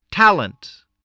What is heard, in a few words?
"talent", English